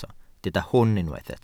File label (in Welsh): "hwn" Welsh